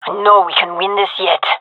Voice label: round losing, fem; vo